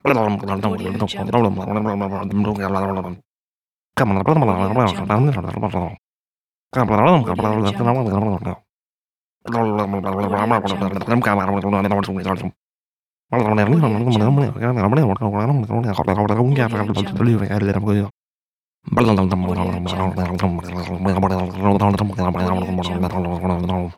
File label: cartoon man mumbling